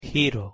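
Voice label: pronunciation "giro", Spanish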